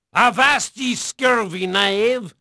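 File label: Portuguese male, voice; Pirates Of The Caribbean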